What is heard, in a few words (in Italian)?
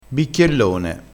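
"bicchiellone", dialettale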